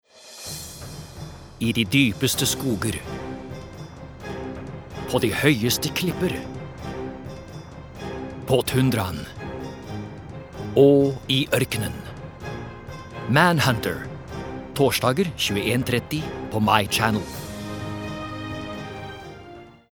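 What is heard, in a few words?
Norwegian dramatic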